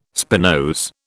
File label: "spinose", British